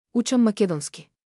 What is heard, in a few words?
I'm learning your language, Macedonian; Учам македонски; Učam makedonski